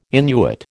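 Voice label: "Innuit", American English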